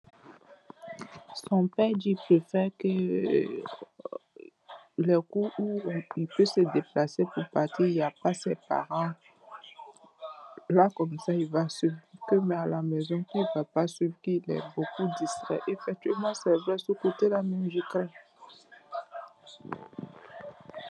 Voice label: voice message